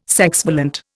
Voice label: "sexvalent", Indian